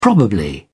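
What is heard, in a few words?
"probably", British English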